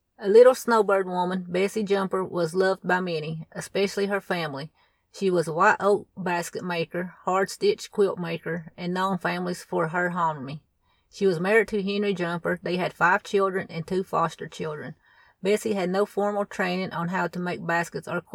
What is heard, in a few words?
english